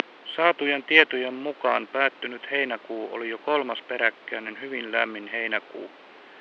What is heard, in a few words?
male nonlin noise out; carbon